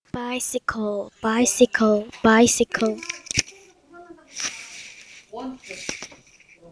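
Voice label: "bicycle", spoken word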